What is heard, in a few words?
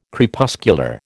"Crepuscular", American